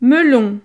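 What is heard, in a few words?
"melon", French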